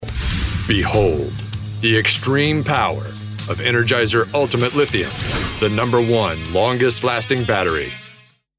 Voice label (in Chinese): 外籍英男 品牌电池宣传片